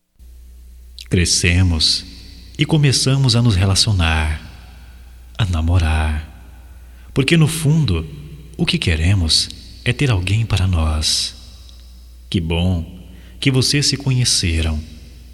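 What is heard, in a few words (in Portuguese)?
HOMENAGEM AOS NOIVOS, Só Voz, masculino; Telemensagens; Telemensagens 2017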